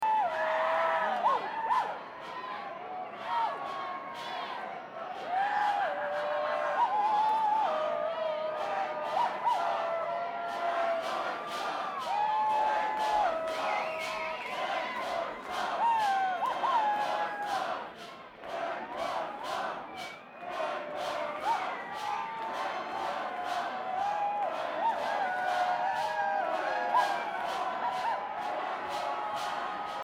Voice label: Banter Crowd